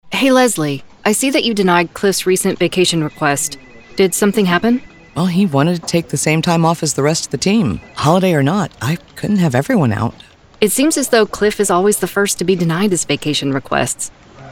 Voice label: E Learning INTERACTIVE